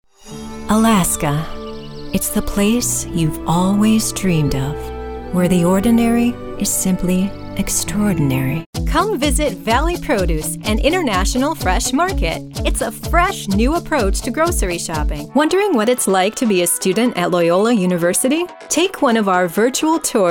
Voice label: Commercial Demo, voice over; voice over demos